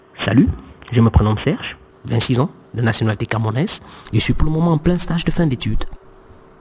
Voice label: French comparative